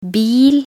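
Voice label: "bil", Norwegian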